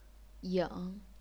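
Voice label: "ying", chinese pinyin, third tone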